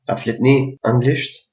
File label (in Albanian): do you speak english, albanian gheg